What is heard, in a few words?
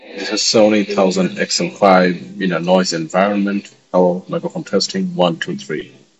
Sony WH-1000XM5 noisy